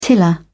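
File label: "tiller", British English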